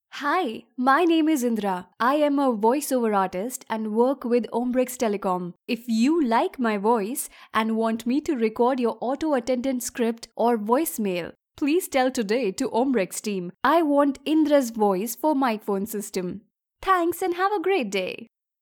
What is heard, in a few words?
English; Ombrex; Indras